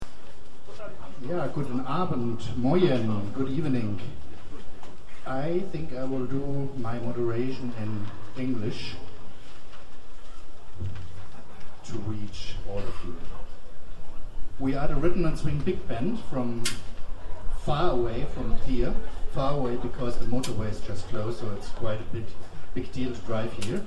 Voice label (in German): Ansage; Konzert Summer In The City (Place D’Armes, LU-Zentrum)